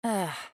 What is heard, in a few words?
Emote Negative